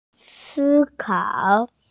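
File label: "think", Mandarin Chinese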